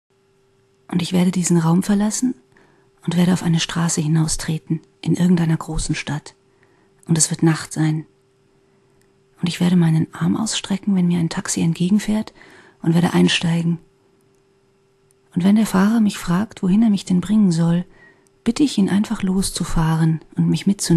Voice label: taxifahrt, frauen

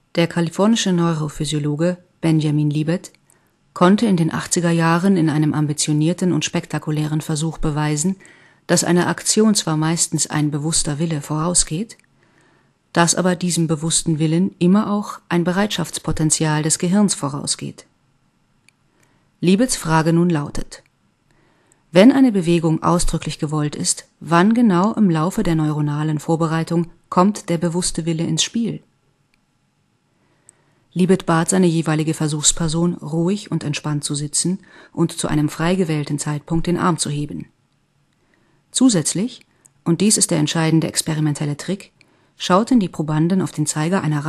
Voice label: sachtext, frauen

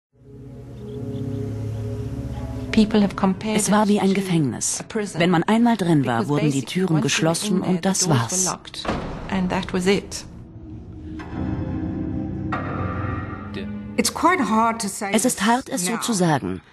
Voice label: doku voiceover, frauen; kap der stuerme

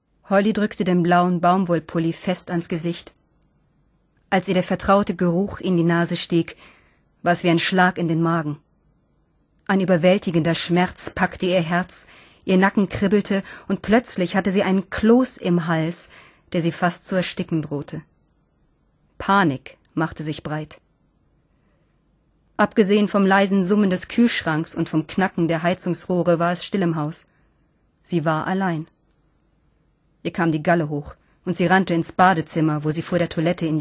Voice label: Hoerbuch, frauen

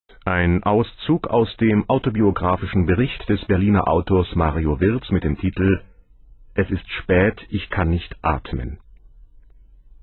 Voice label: erzaehlung, maenner; Frankreich - Erzaehlung; *1959, Nachrichten- und Programmsprecher

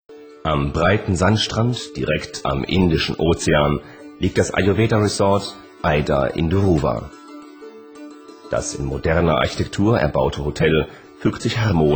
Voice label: deutsch, maenner; Berlin

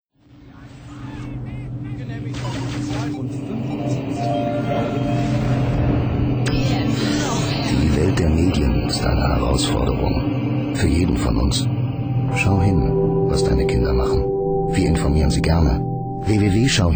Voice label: werbg, maenner; schau hin

